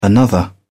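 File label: "another", British English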